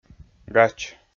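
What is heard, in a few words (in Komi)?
"гач", Komi, male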